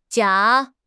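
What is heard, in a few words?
"jia3", Mandarin Chinese; HSK4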